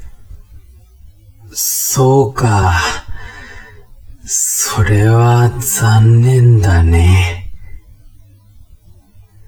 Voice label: man